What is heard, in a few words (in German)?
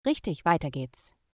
verfahren ok, voice de; logistik